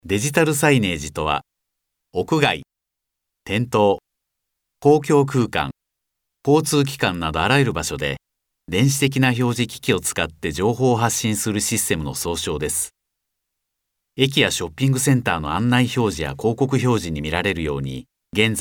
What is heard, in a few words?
narration